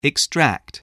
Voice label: "extract", voice